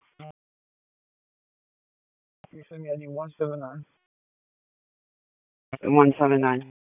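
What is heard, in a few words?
Police Southeast District Disp, radio; Raleigh; wake; p25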